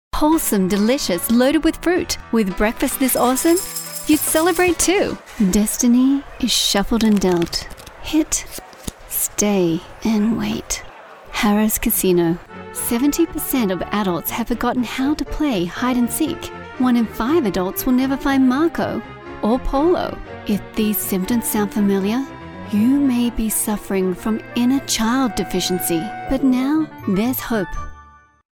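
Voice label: English Australian, female, voice over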